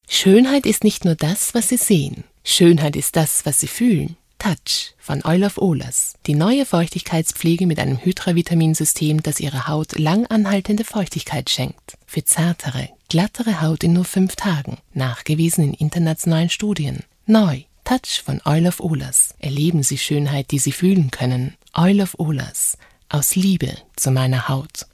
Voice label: Spot

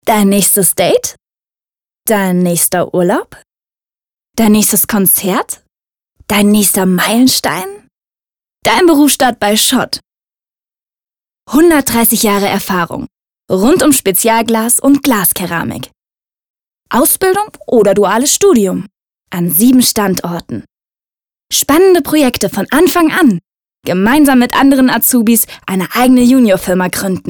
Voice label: Spot freundlich

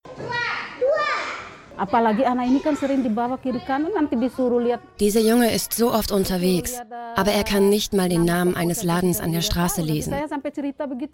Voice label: DOKU; ARTE

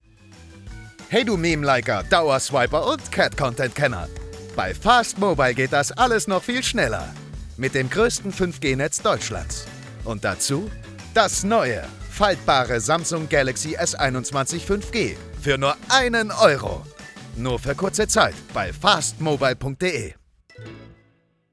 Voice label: Werbung; Smartmobile

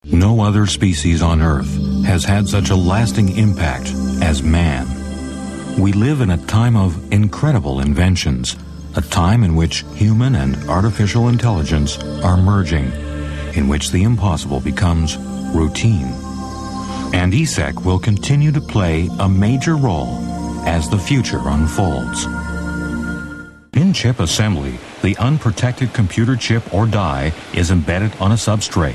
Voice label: Image Werbung

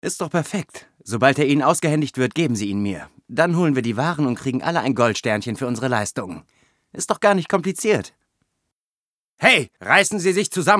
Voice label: Synchron

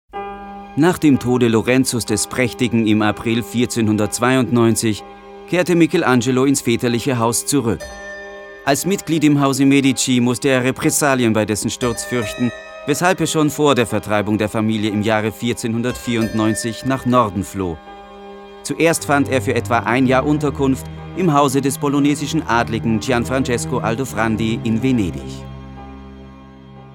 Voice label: Doku

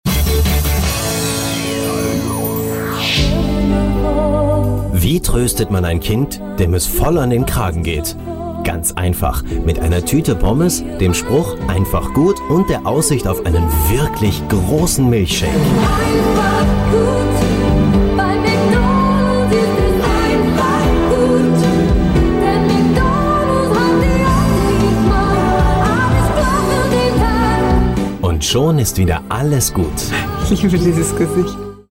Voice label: Reklame TV

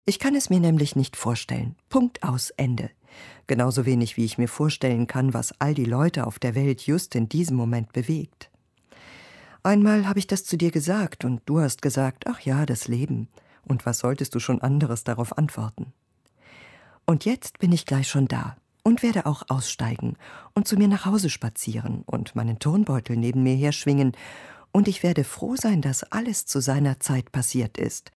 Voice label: Literatur, Sprecher

